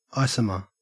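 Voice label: "isomer", Australian English; pronunciations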